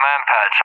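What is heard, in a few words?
"MANPADS", English; UK; AirDefence; DCS; Allied Flight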